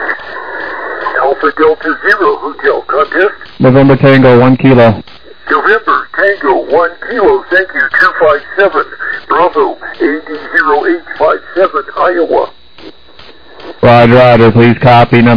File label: USB 21MHz